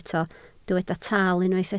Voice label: "taal", Welsh

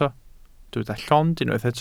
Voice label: "llond", Welsh

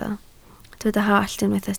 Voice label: "hallt", Welsh

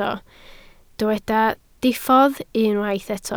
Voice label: "diffodd", Welsh

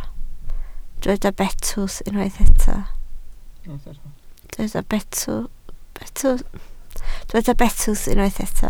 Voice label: "betws", Welsh

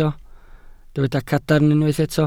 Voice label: "cadarn", Welsh

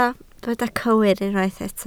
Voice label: "cywir", Welsh

